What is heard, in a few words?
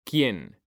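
"quien", Spanish, male; mekanta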